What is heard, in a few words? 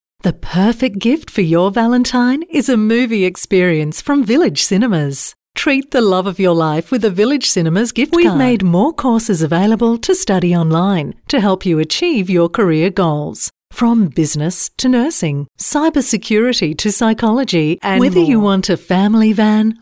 Soft Sell